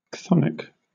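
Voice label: "chthonic", English, British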